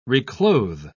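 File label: "reclothe", British English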